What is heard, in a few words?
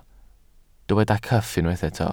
"cyff", Welsh